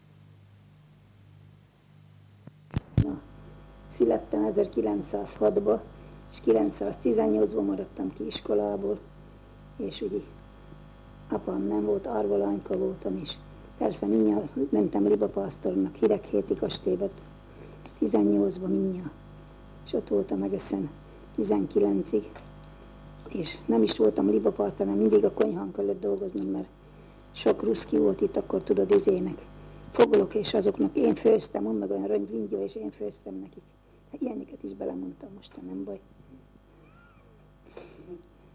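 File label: dialect collections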